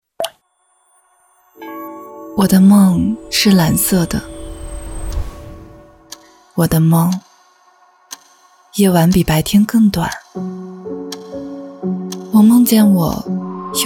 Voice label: 女 走心; VIVO

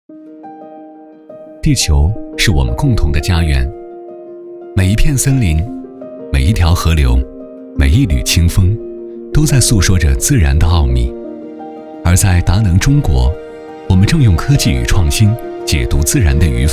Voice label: 男 专题; 达能中国

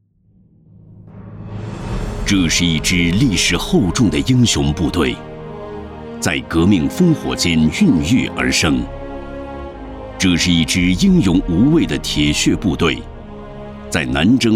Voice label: 男, 专题; 铁血先锋

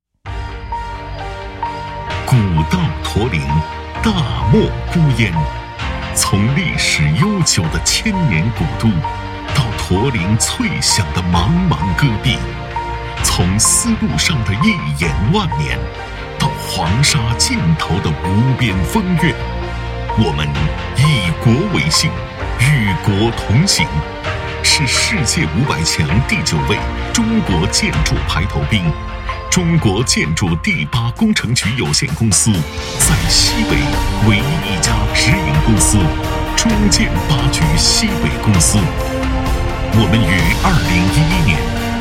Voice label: 男 宣传片; 铁军八局宣传片

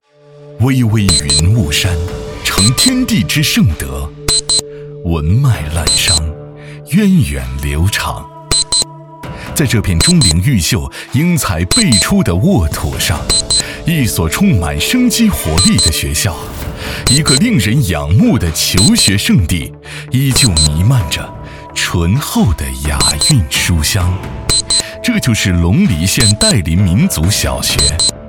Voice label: 男 学校宣传

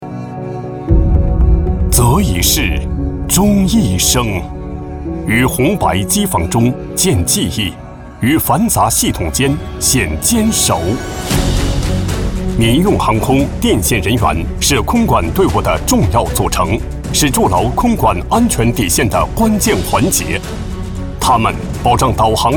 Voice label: 男 竞赛; 导航技能比赛

